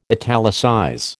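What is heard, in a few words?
"italicize", American English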